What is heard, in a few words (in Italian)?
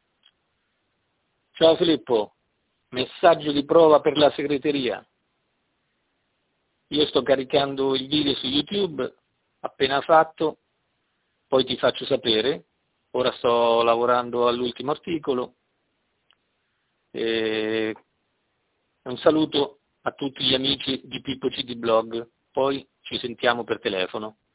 registrazione segreteria